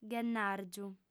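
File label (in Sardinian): "ghennàrgiu", female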